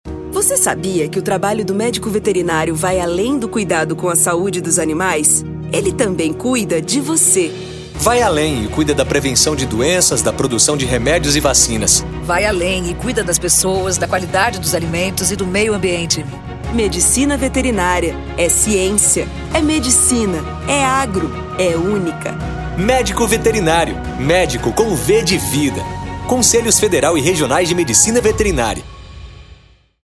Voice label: spot; med vet